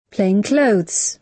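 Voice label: "plain-clothes", British English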